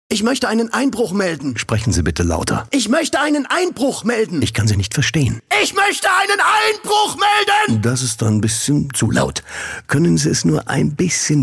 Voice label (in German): Energisch; Femdtake